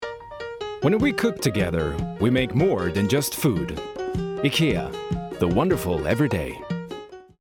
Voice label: Voice Over Commercial English with Transatlantic Accent, Strong, Assured, Direct; IKEA